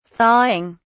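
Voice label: "thawing", British English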